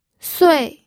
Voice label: "sui4", pinyin